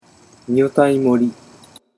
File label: "nyotaimori", Japanese